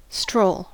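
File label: "stroll", US English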